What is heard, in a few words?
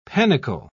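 "panicle", British English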